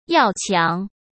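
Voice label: "要强", Mandarin Chinese; chinese-flashcards; hsk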